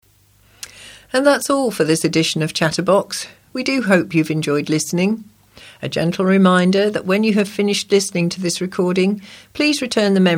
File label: Closing Announcement